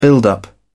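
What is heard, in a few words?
"build up", British English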